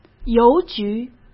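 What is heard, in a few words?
"邮局", Mandarin Chinese; chinese flashcards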